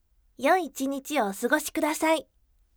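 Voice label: voice yoi 1 nichi wo sugosite bright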